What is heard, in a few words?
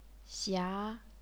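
"xia", chinese pinyin, second tone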